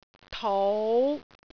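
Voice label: "tou5", Cantonese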